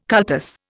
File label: "cultus", Indian